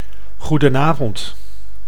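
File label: "goede avond", Dutch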